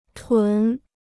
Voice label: "屯", Chinese

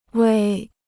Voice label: "胃", Chinese

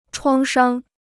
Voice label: "创伤", Chinese

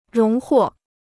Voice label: "荣获", Chinese

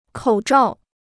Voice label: "口罩", Chinese

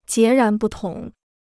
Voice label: "截然不同", Chinese

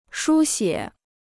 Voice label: "书写", Chinese

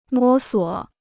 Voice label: "摸索", Chinese

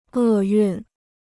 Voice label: "厄运", Chinese

